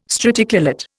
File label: "straticulate", Indian